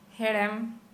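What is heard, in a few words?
Santali female sweet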